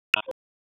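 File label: Police Spec Ops Tac, radio; Raleigh; wake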